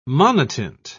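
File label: "monotint", British English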